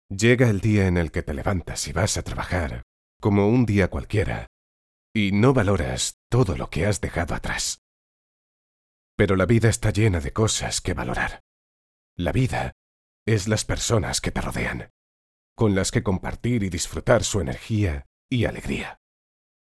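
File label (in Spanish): otros España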